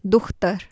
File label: "duxter" daughter literary, Urdu, F